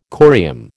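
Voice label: "corium", American English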